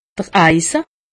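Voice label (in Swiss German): "eise", Haut Rhin; medecine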